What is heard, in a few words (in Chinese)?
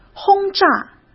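"轰炸", Mandarin Chinese; chinese flashcards; hsk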